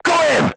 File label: voice message direct hit, crew tanks portuguese